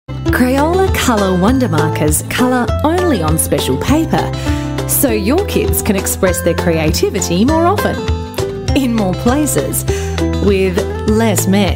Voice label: AU English TVCs

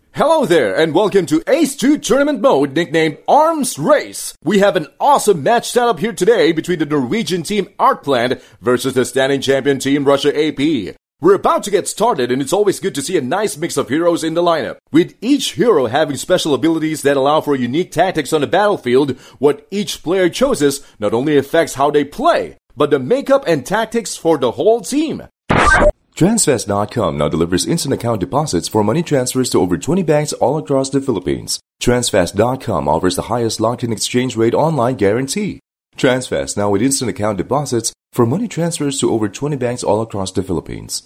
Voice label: English Male; filipino; Voice Reel

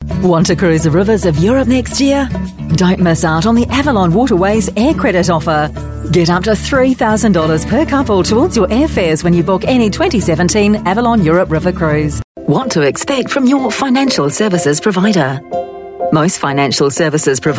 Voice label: AU English Voice Reel

